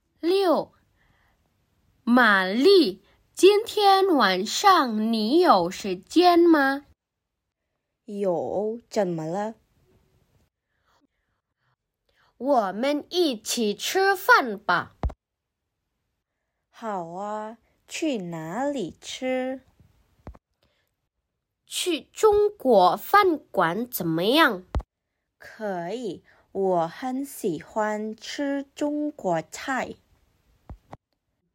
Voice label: Mandarin; mandarin placement test hsk2